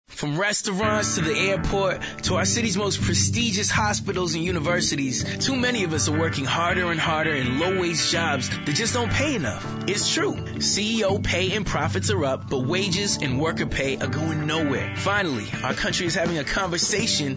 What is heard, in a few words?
Radio; WageAction